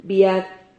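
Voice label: "biad", Spanish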